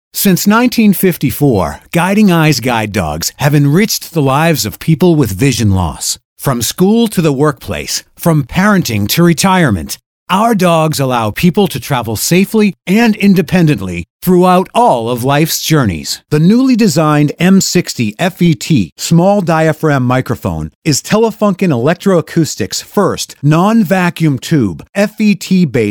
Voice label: phone